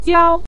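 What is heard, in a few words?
"jiao" neutral tone, Mandarin Chinese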